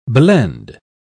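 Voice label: "blende", British English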